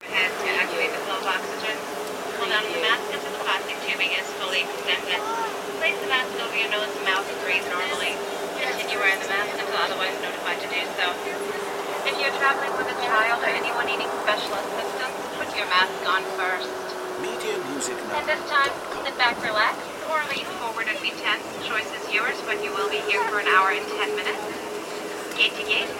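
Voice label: Stewardess Emergency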